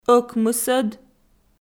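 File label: "ӧкмысӧд", Komi-Zyrian, female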